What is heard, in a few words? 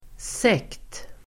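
"sekt", Swedish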